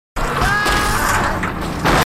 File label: marines, aussie; h2dialogue